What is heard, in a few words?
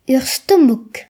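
"stomach", Breton; gcompris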